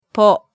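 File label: "po", Japanese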